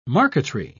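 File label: "marqueterie", British English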